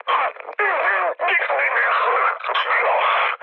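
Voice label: Hungarian damaged pilot mood high, speech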